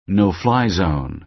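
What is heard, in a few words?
"no-fly zone", American English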